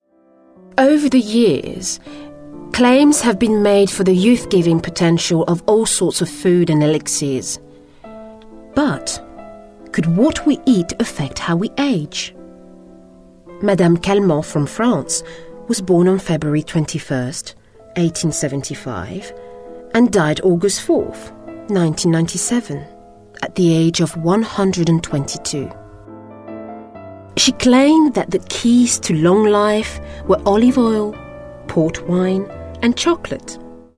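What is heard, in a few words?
French English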